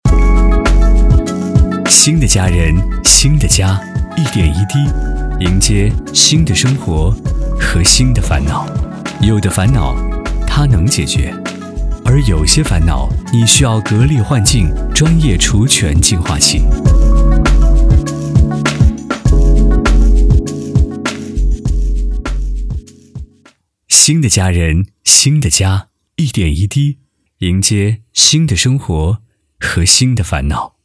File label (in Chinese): 男 广告 魅惑广告; 格力焕净专业除醛净化器